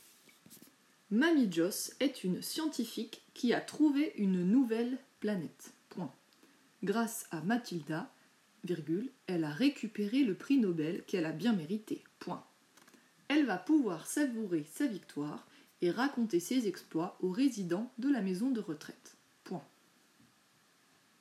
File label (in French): Dictée rapide